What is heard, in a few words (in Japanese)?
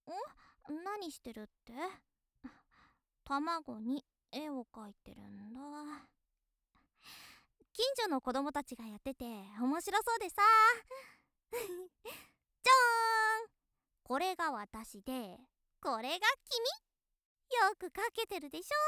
元気JK イースターだよ